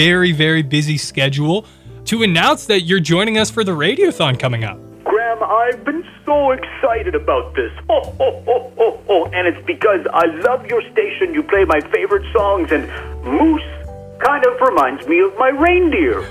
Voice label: Santa Call with music